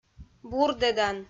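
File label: "бурдӧдан", Komi-Zyrian, female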